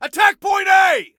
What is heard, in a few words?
attack, male; T2 Male 01